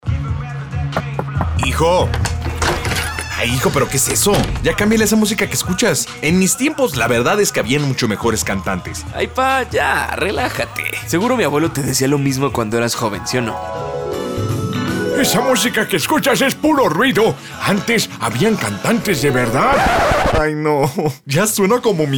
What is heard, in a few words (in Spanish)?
PROMO BOCINA DIA DEL PADRE